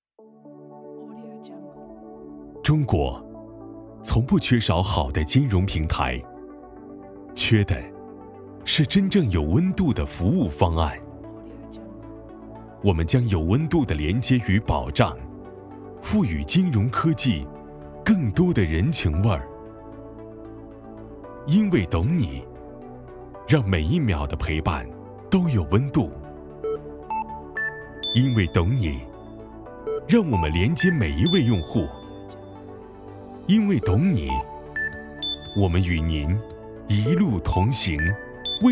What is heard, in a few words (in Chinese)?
男 广告; 懂你 中国